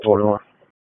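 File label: Sheriff's Office Dispatch; WSO DISP 3; wake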